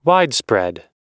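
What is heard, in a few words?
"widespread", English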